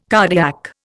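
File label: "cardiac", Indian English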